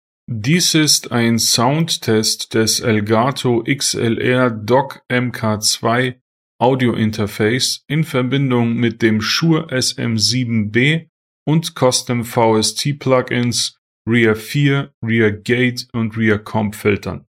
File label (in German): Elgato XLR DOCK MK.2 SHURE SM7B VST Plugins